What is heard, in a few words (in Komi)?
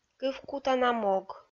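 "кывкутана мог", Komi, female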